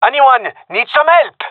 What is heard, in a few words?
request help, gendarmerie male; vo